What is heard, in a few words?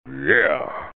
"yeah", voice